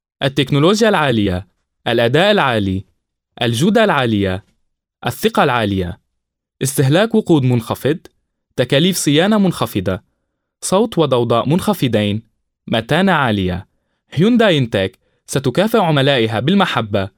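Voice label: Arabic, male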